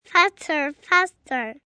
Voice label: Faster Faster, Calls, 1 boy, 4 yrs.; Talking